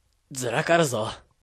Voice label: escape, rpg voice